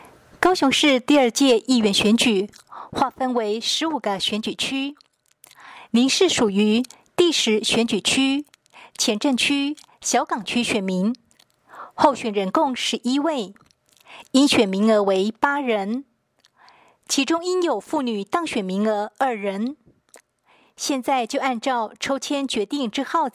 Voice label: 國語; 高雄市; 高雄市市長議員有聲選舉公報; 第十選區

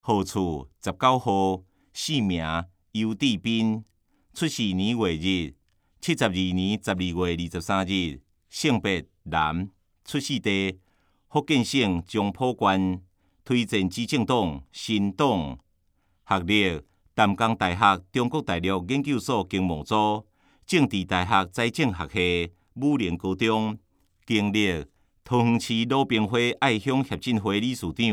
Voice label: 台語; 有聲公報; 市議員

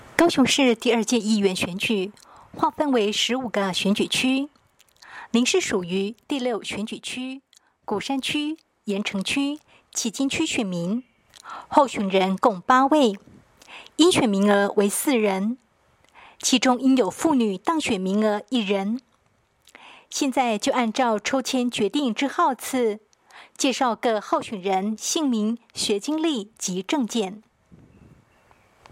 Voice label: 國語; 第六選區

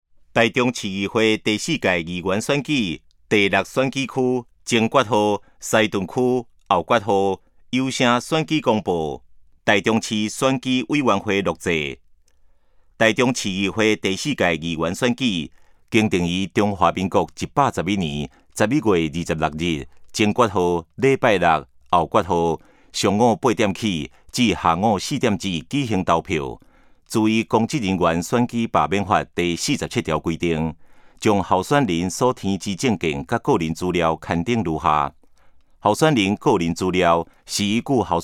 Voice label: 前言, 台語; 臺中市; 市議員; 第06選區; 有聲公報

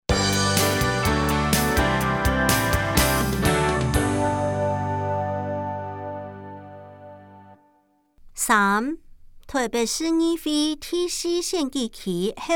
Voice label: 客語; 臺北市議會第14屆議員第4選舉區 (中山、大同區)候選人介紹; 第4選舉區候選人介紹; 有聲公報

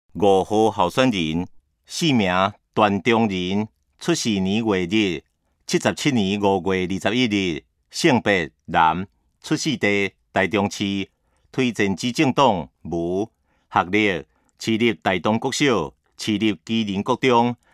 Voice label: 台語; 臺中市; 有聲公報; 市議員; 第13選區

